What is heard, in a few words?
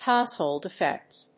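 "HOUSEHOLD EFFECTS", English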